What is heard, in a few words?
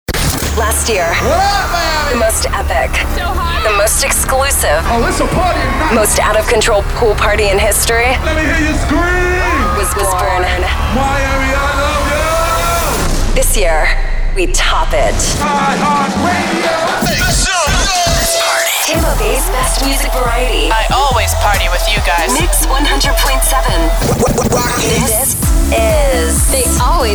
Voiceover, women